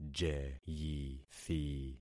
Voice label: "jeyithi", concat syllables; Affixing experiment

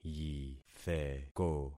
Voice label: "yitheko", concat syllables; Affixing experiment

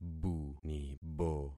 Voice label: "bunibo", concat syllables; Affixing experiment

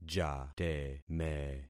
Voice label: "jateme", concat syllables; Affixing experiment

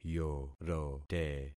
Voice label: "yorote", concat syllables; Affixing experiment

